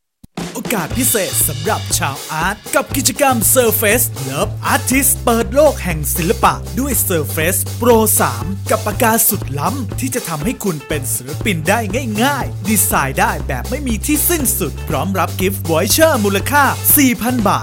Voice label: 泰语男, 外语, 泰语男声, 激情; 小语种

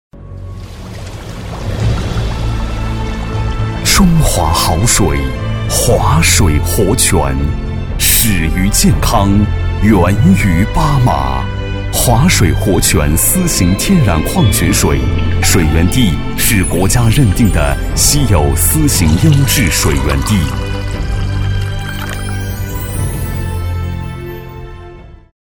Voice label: 男国 广告 食品饮料 大气; 华水活泉

